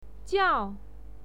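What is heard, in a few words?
"jiao", Mandarin Chinese pinyin, fourth tone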